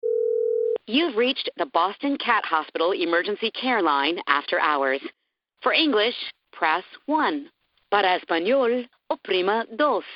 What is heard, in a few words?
IVR SPEED